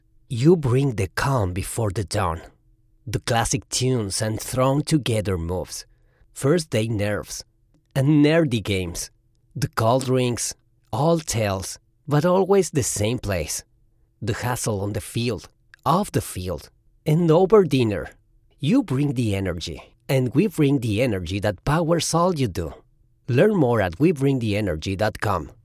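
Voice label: Accented English Commercial; GoLocalise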